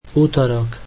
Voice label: slovak Tuesday